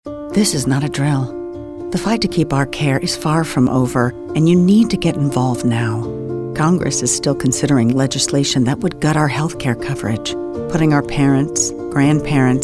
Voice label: concerned